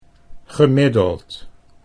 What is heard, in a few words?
"gemiddeld", Dutch